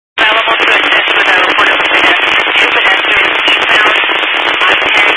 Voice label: Highway Patrol, scanner; DPS

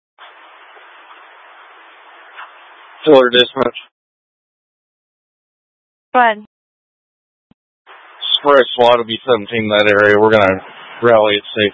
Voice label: Mutual Aid-Intersystem Interop B, scanner

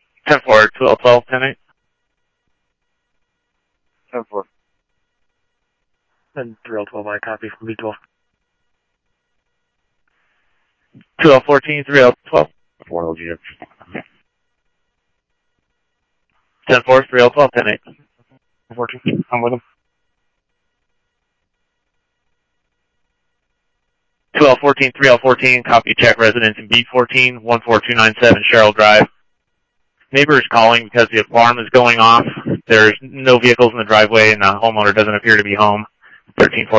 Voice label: Scottsdale PD Scottsdale Police, scanner audio; ThompsonPeakHigh; SCTSDLE PD - PD2

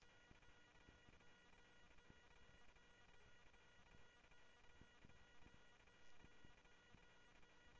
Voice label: Central Sheriff Central, scanner audio trunk

